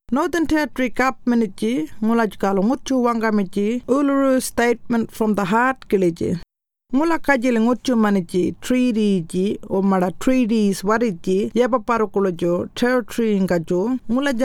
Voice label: Warlpiri; What about Constitutional Change